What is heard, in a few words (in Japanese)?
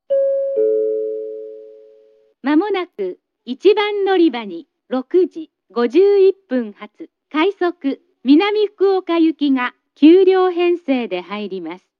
announce; minamifukuoka; jrkyushu; moji st